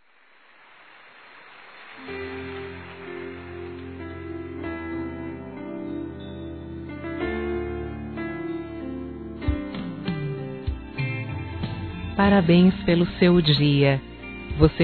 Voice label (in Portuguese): Geral Feminino, Telemensagens; Telemensagens 2017